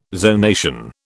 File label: "zonation", British